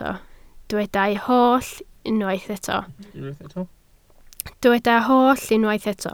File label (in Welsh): "holl", Welsh